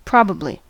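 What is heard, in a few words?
"probably", American English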